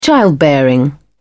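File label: "childbearing", British English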